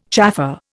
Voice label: "chaffer", Indian